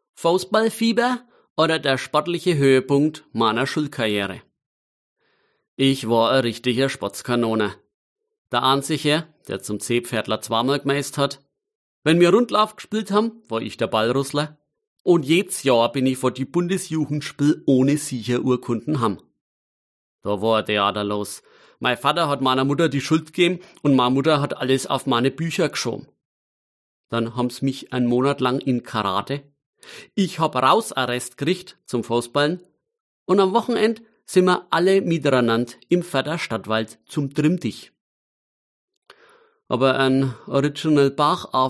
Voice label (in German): Mundart